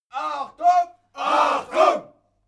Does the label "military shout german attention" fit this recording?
Yes